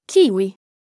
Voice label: "kiwi", Italian

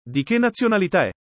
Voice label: chiedere formale, italiano

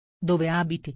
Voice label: domanda informale

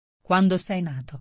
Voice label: domanda informale

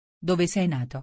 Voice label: domanda informale

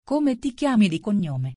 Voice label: domanda informale

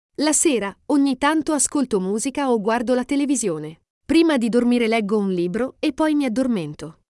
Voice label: monologo giornata tipo, italiano

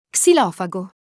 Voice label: "xilofago", italiano

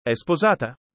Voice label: chiedere formale, italiano

